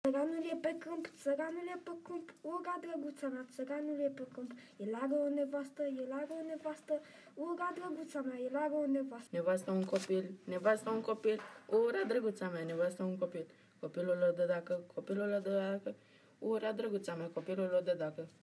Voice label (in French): langue roumaine